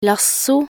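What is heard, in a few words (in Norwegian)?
"lasso", Norwegian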